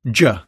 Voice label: phoneme j, American; American IPA phonic